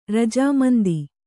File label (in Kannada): "ರಜಾಮಂದಿ", kannada